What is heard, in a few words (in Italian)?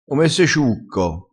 "ciucco", crespinese